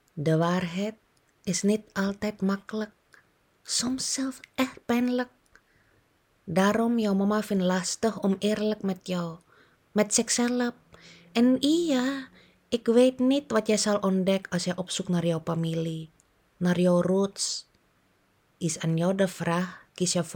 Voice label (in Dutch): Indonesisch accent